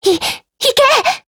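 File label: ATTACK Japanese; TCMS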